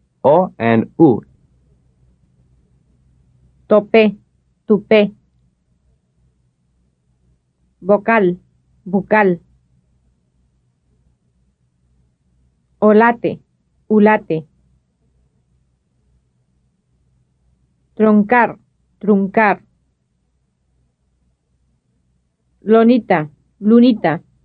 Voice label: Contrasting Spanish vowel sounds - o and u